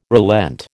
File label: "relent", American